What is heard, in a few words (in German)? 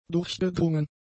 "durchgedrungen", German; adjectives